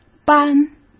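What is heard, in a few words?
"班", Mandarin Chinese; chinese-flashcards; hsk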